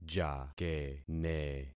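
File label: "jakene", concatenated syllables; Affixing experiment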